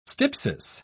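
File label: "stypsis", English, uk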